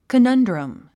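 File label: "conundrum", English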